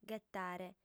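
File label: "ghetare", female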